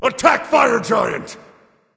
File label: vox attack f; smite; anubis